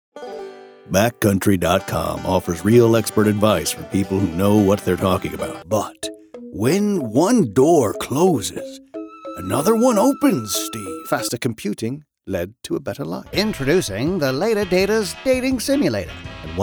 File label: commercial